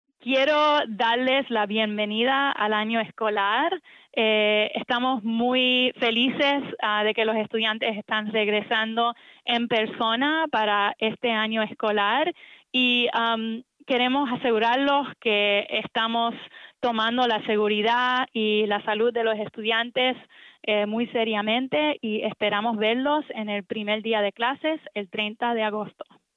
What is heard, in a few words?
Welcome message English and Spanish